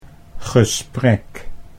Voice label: "gesprek", Dutch

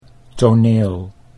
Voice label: "toneel", Dutch

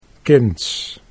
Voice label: "kinds", Dutch

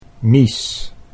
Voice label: "mies", Dutch

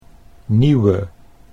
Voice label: "nieuwe", Dutch

